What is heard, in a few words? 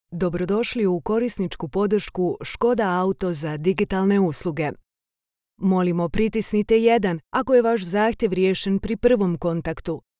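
Croatian, female, IVR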